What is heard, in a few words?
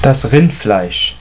"Rind", German